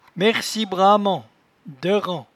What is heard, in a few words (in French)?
"merci", patois